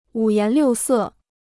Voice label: "五颜六色", Chinese

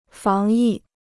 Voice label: "防疫", Chinese

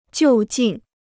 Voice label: "就近", Chinese

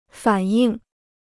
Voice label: "反应", Chinese

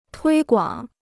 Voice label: "推广", Chinese

